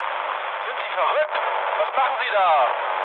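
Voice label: German player killed ally mood low, speech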